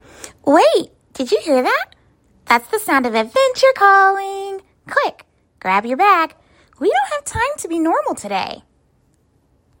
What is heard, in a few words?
bubbly character, voiceover; Animation; Belgium Chocolate